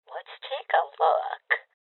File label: Voices Open Safe Female Old; Rebel Cops